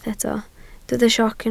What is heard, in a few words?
"sioc", Welsh